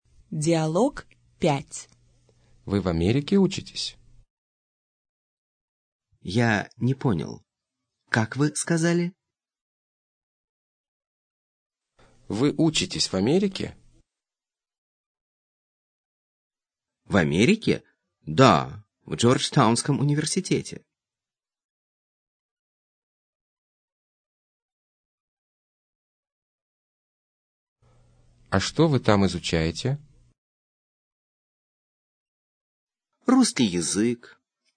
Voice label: dialog, russian